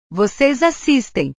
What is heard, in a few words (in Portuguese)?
"voces assistem", portugues; Ustedes ven